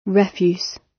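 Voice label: "refuse", British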